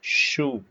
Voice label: short voyels arabic alphabet